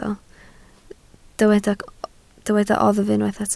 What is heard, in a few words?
"oddf", Welsh